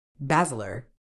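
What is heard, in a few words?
"basilar", British English; ˈbæz.ɪ.lə; BAZ-i-luh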